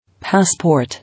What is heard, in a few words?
"Passport", English